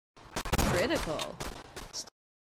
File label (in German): voice acting im kampf; borderlands 2